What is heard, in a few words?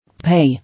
"Pay", English